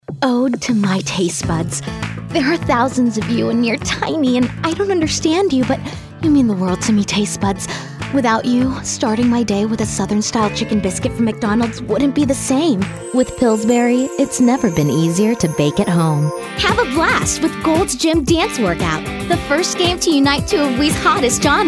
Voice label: Commercial